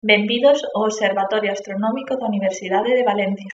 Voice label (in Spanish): galego